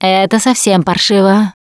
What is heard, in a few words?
reaction negative, russian, Survivor Voice Producer